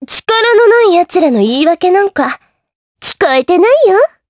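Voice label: vox dialogue, voices, Japanese; Erze; kingsraid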